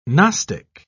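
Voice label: "gnostic", British English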